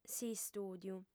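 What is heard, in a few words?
"s'istùdiu", Sardinian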